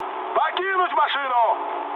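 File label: Russian bailout mood med, speech; War Thunder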